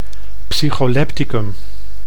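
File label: "psycholepticum", Dutch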